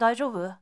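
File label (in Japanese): "daizyoubu", Japanese boy; shonen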